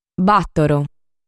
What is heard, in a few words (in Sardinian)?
"Bàtoro", sardu